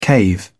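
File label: "cave in", British English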